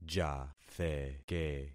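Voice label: "jatheke", concatenated syllables; Affixing experiment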